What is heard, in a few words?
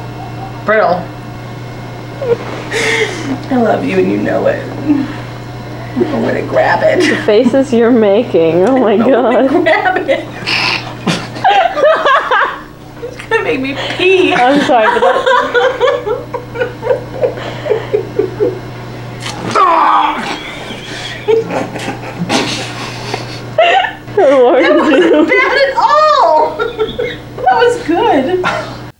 Cancer Chest Commercial